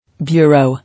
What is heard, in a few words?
"Bureau", English